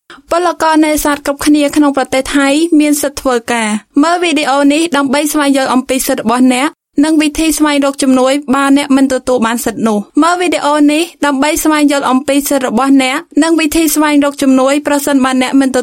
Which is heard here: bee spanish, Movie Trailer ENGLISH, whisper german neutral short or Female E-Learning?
Female E-Learning